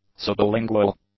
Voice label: "sublingual", English; adjectives